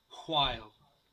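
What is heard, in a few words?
"while" English, US; pronunciations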